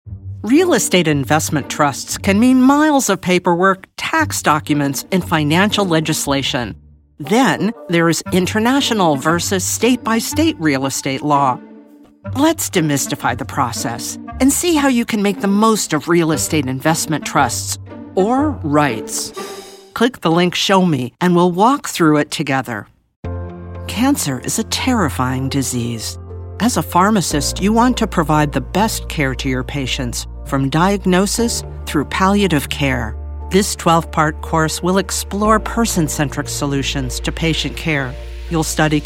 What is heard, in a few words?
Voice Actor E Learning